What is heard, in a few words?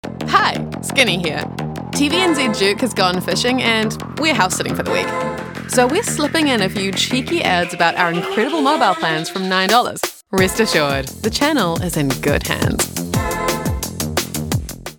english new zealand promos